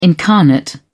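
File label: "incarnate", British English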